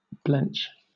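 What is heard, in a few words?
"blench", English, British accent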